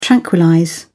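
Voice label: "tranquillize", British English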